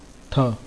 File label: "tha", Hindi